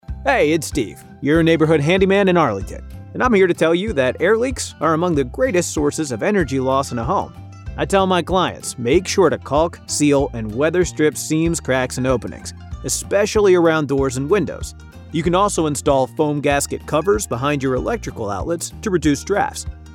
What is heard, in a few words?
Radio; Northern VA Arlington